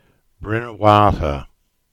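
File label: "Bynnerwartha", Cornish; historic name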